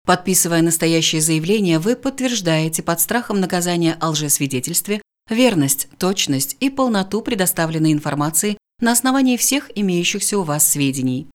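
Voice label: Russian, female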